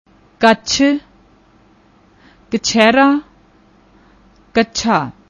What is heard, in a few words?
"kuchh", Punjabi; ਕਛਹਿਰਾ